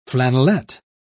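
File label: "flannelet", British English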